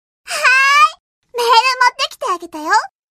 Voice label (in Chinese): HI 来短信咯（日文女仆）